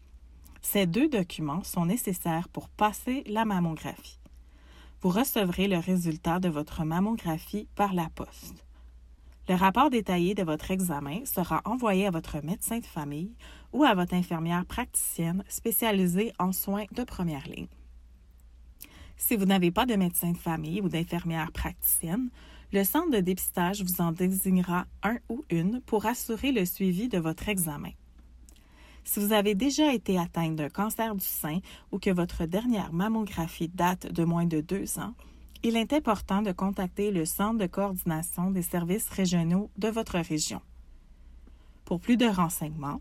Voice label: Narration Lettre FR; MEMO